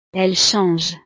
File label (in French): "elles changent", frances